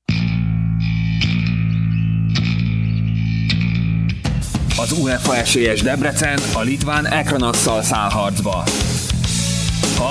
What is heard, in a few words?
spot, sportradio